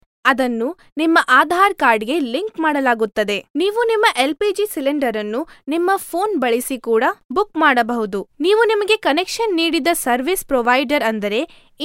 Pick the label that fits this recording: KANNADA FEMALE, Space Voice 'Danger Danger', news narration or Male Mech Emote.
KANNADA FEMALE